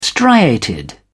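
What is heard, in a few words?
"striated", English, British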